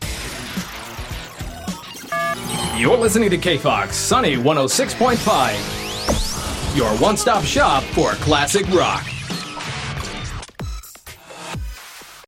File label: radio, voiceover